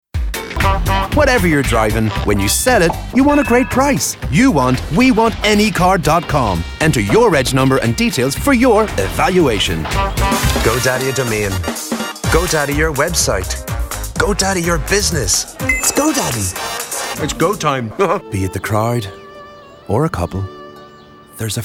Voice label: montage commercial